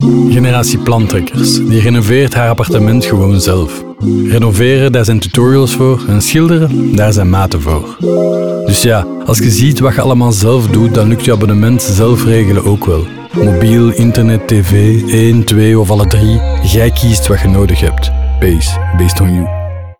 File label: Radiospot; Base; TBWA; generatie plantrekkers